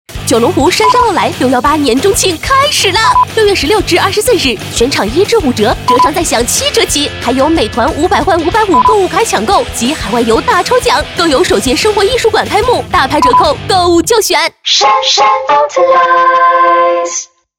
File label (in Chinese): 女 电台广告 活泼动感; 杉杉奥莱